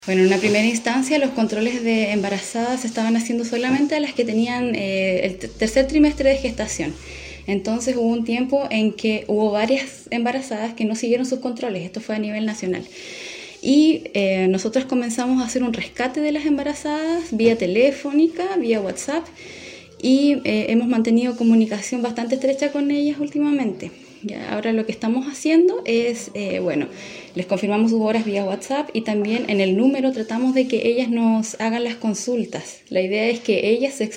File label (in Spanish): CUÑA